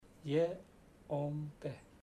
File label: it isnt good, chamacoco; Bahia Negra